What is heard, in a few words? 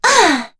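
Vox Landing, voices heroes English; Kara; kingsraid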